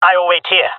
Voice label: waiting here, jungle male; player vo; Counter Strike Model Pack; CS:GO